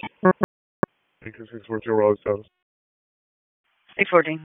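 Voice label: Police Dispatch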